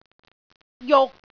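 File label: "juk6", Cantonese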